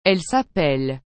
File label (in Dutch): "elles s'appellent", frans; appeler